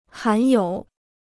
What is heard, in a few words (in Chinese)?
"含有", Chinese